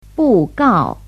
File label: "bu4gao4", Chinese